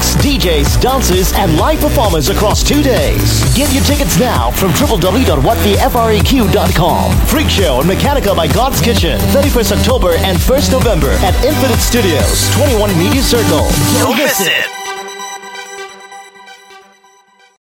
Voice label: Asian English, English Singaporean Male; FREQ-SHOW